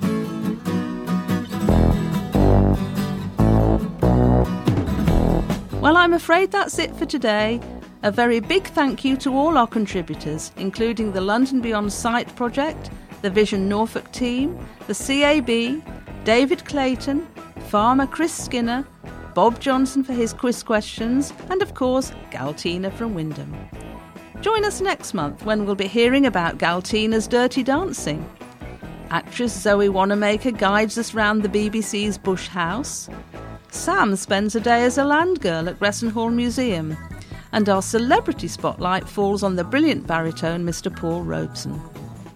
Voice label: Closing Announcement